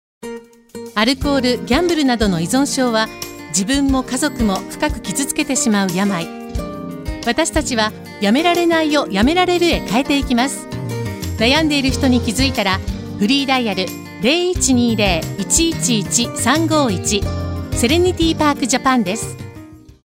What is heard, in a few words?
radio cm